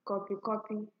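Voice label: "copycopy", Nigerian Pidgin, female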